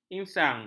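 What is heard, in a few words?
"insang", Malay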